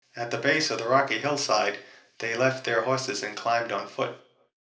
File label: one speaker reverb; competing talkers